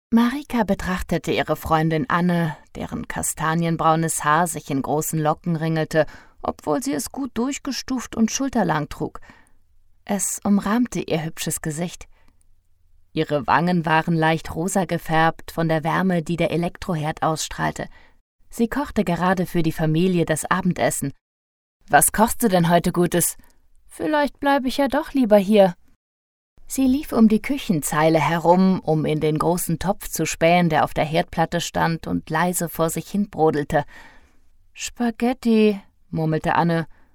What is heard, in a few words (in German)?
hoerbuch; eine verrueckte vereinbarung